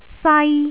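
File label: "sai1", Cantonese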